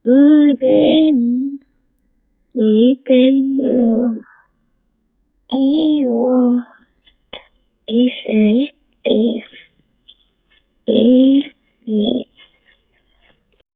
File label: a girl say good morning, greeting